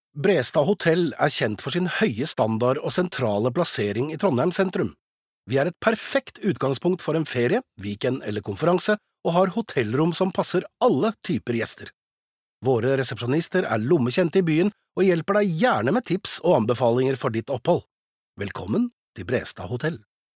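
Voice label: Norwegian informative, norwegian male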